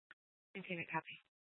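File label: Tempe police department, scanner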